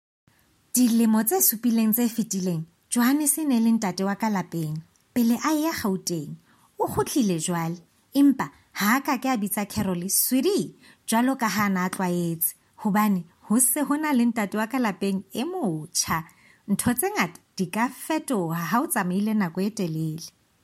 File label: Sesotho conversational